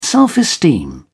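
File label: "self-esteem", British English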